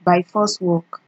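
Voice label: "by force work", Nigerian Pidgin, female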